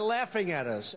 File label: trump Laughing at us; Donald Trump Construction Kit